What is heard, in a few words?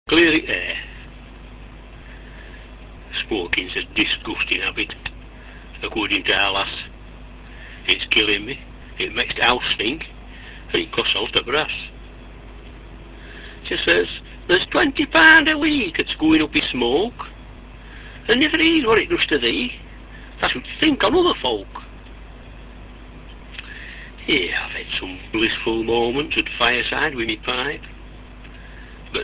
clearin tair, yorkshire dialect